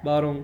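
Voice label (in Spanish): "varon", Cuba, Havanna; Kuba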